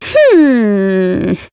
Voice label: smug; neopolitan

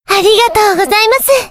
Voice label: happy

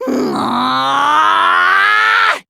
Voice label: angry

